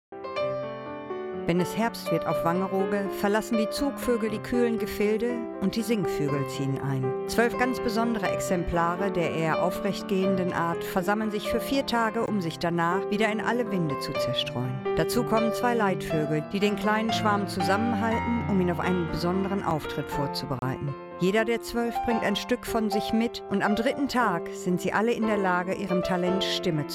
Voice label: German, Female

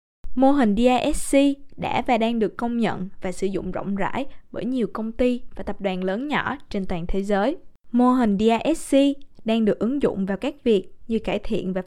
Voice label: Vietnamese, Female

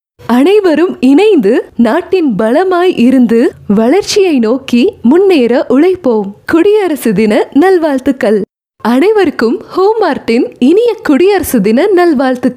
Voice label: Tamil, Female

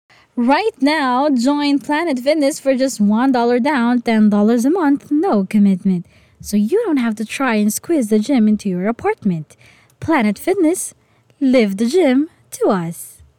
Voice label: English (Asian), Female

